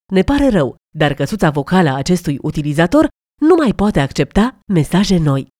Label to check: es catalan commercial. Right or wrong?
Wrong